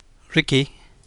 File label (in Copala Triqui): "riqui̱j", Copala Triqui